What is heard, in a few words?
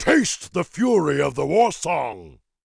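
warcry, English; Grom; 兽人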